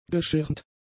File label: "geschirmt", German; adjectives